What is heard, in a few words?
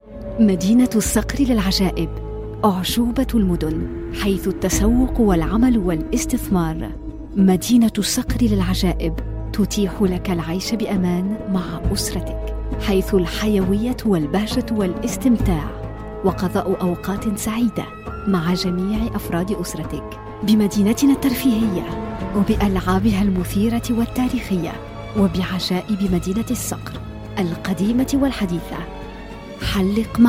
Arabic